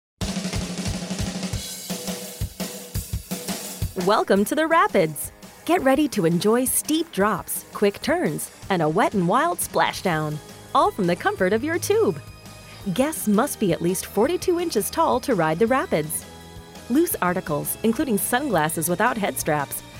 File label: Voiceover Amusement Park Safety; Specialty Applications